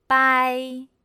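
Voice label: "bāi", Chinese; pinyin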